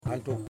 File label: "Mouth", Yimas